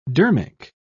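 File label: "dermic", British English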